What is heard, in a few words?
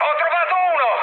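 Italian player killed enemy mood high, speech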